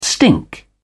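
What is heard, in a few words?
"stink", English, British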